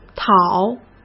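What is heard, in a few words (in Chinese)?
"讨", Mandarin; chinese-flashcards; hsk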